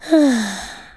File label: "boring", Redneck